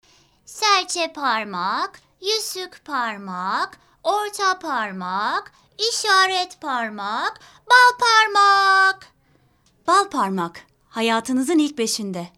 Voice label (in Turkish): reklam